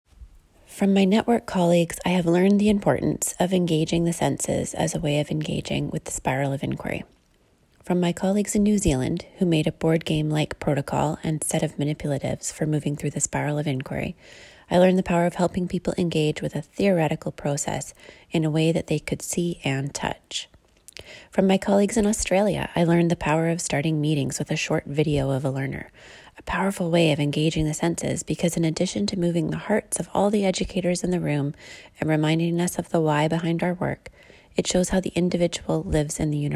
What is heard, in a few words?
voiceover